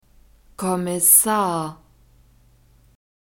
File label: "kommissar", german; masculine